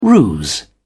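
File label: "ruse", British English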